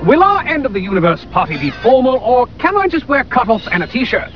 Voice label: Formal